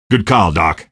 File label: squad affirm, male; Garry's Mod; hl2